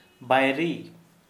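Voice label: enemy, Santali, male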